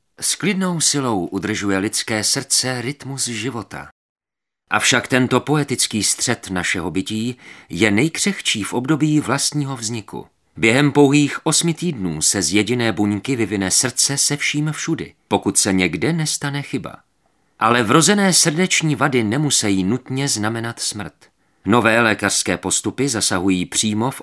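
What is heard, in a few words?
male Czech documentary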